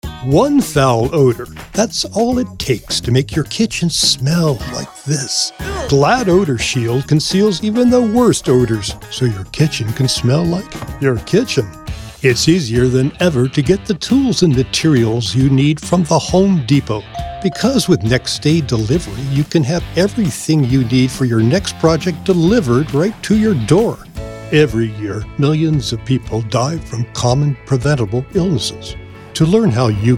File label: Commercial